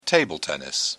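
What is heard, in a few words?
"table tennis", British English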